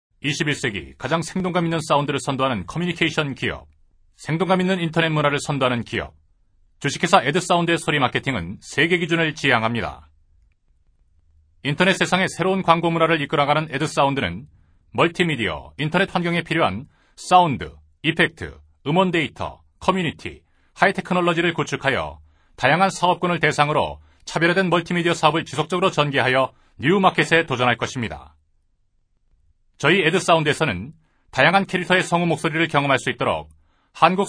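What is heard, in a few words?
Korean male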